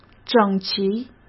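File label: "整齐", Mandarin Chinese; hsk; chinese-flashcards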